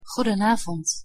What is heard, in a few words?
pronunciation nl "goedenavond"; język niderlandzki podstawowe zwroty